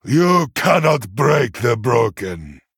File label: Male Broken